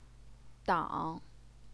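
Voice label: "dang", third tone, Chinese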